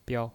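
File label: "biao", Mandarin Chinese, neutral tone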